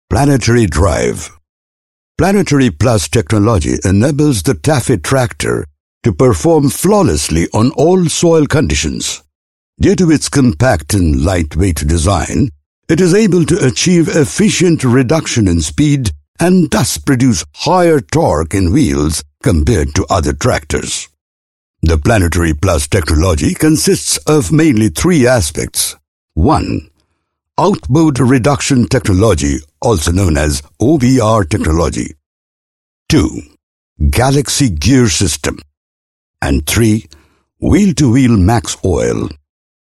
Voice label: English Indian, Male; Tafe